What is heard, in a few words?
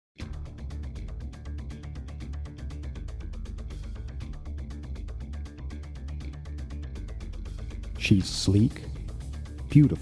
Voice-Over; Body Tone Multimedia; Electra 3